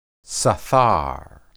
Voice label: "sathar", Hebrew

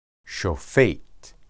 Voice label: "shophet", Hebrew

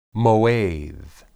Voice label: "moed", Hebrew

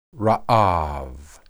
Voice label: "raab", Hebrew

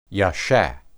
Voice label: "yesha", Hebrew

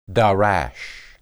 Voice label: darash, hebrew

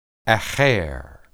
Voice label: aher, hebrew

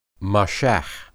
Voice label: "mashah", Hebrew

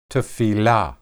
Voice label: "tephilah", Hebrew

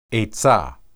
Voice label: "etsah", Hebrew

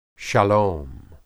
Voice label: "shalom", Hebrew

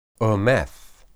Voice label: "emeth", Hebrew

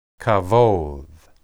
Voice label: "kabod", Hebrew

